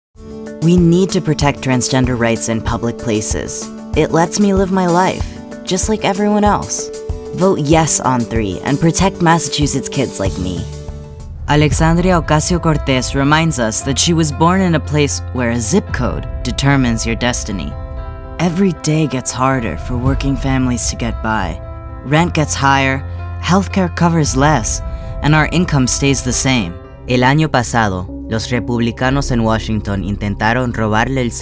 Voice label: Bilingual Political, voiceover

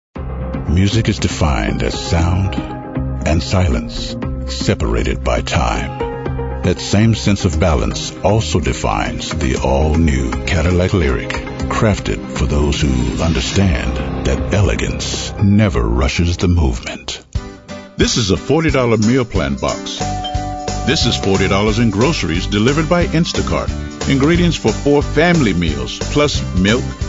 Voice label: Commercial, voiceover; advertising

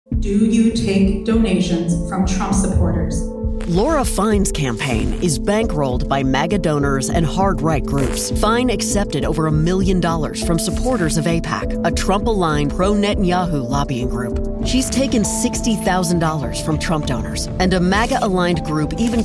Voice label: Attack Ad, voiceover; Laura Fine

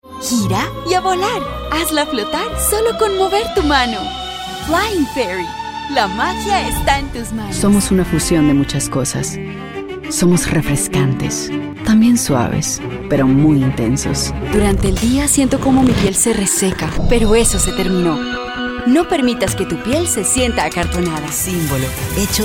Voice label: Commercial Spanish

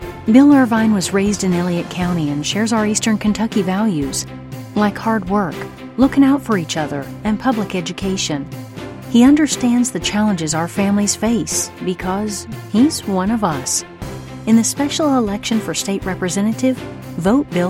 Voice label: Southern Accent, voiceover

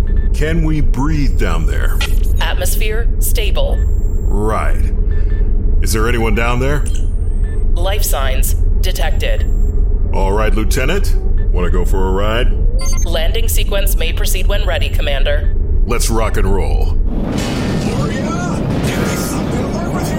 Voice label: Sci Fi Computer Voice

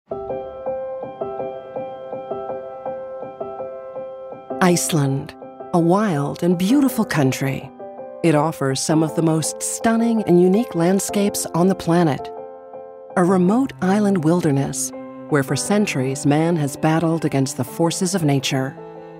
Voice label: Documentary, voiceover

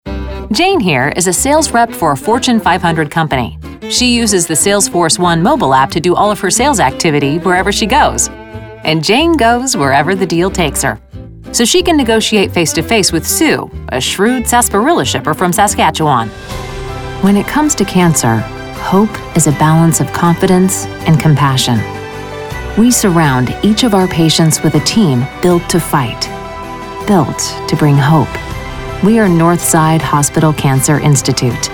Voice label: Narration, voiceover; Blue Wave Voiceover

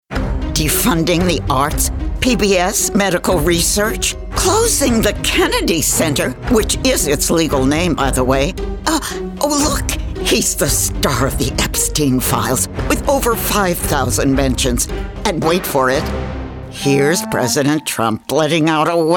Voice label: Edgy Snarky Fun Voice

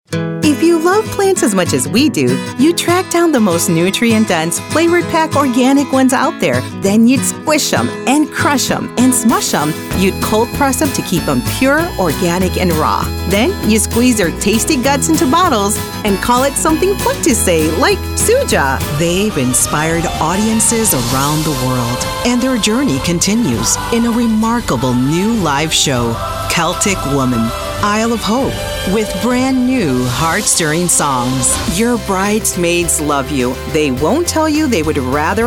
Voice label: English Commercial

